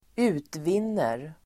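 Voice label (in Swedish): "utvinner", Swedish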